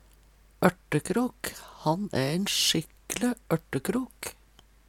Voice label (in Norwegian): ortekrok, Numedalsmål; Han æ ein sjikkle ørtekrok.; Substantiv hankjønn